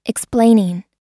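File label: "explaining", American English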